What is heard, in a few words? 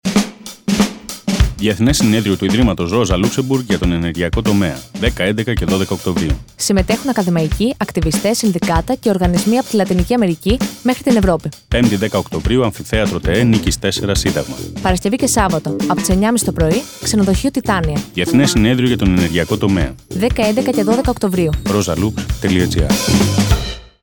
radio spot; energy conference